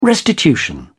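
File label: "restitution", English, British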